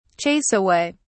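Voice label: "chase away", British English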